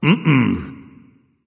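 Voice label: disagree, dragon; fafnir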